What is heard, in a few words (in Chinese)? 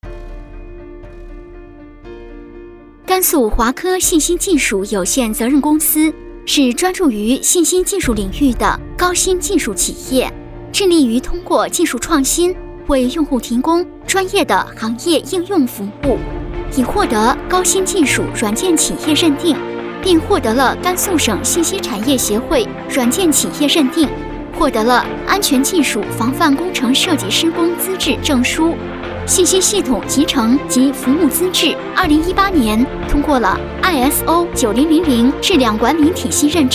专题企业宣传